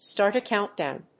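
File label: "start a countdown", English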